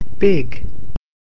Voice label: "big", English